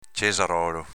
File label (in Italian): "cesarolo", dialetto veneto